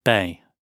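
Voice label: "bay" British English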